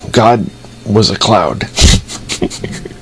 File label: chat